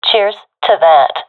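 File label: thankful, professional female; vo; agents; Source Model Packs; Counter Strike Model Pack; CS2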